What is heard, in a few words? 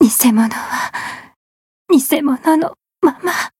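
retire, Japanese; channon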